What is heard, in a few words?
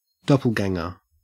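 "doppelganger", Australian English; pronunciations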